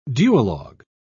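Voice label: "duologue", British English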